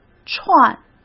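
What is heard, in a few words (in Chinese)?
"串", Mandarin Chinese; hsk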